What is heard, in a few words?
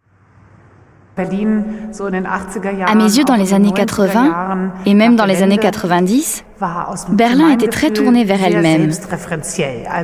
voice over; Berlin